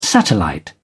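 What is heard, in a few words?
"satellite", British English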